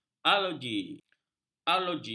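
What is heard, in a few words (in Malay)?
"arloji", Malay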